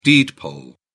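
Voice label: "deed poll", British English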